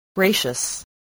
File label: "gracious", spelling